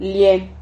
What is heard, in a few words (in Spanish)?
"lie", Silabas